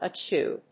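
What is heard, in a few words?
"achoo", English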